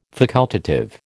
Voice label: "facultative", American